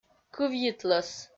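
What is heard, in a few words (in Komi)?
"кывйитлӧс", Komi, female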